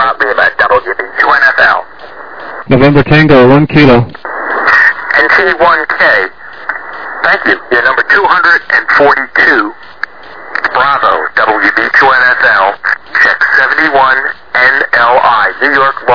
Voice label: LSB, 7MHz